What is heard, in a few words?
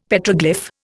"Petroglyph", Indian; Dictionary